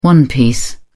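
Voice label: "one piece", English, British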